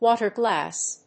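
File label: "water glass", English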